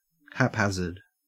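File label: "haphazard", Australian English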